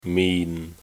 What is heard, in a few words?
"min6", cantonese